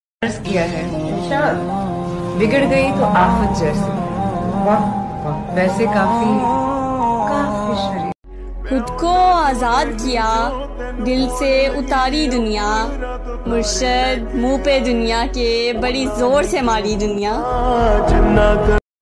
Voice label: Best Shayari Ringtone